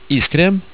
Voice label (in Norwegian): "iskrem" Norwegian